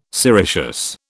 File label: "sericeous", British